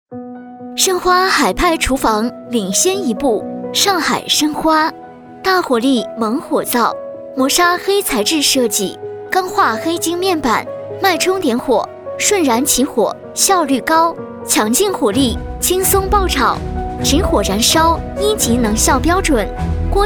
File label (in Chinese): 广告猛火灶